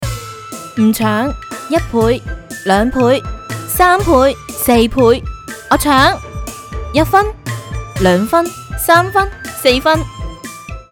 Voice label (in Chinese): 粤语游戏女声